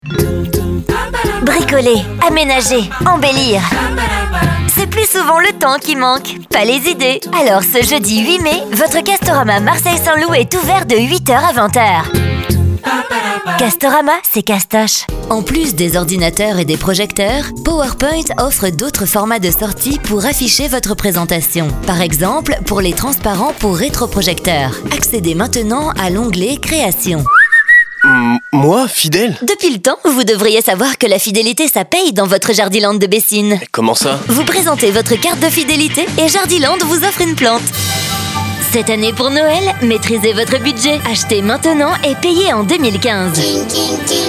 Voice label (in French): voix off